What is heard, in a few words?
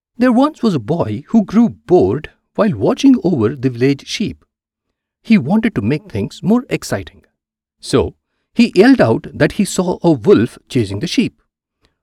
English story, storytelling voice